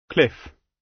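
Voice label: "cliff", British English; Time, history; Thời gian, lịch sử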